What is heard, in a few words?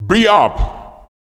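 vocal male, Dance Vocals, spoken words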